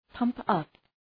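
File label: "pump up", English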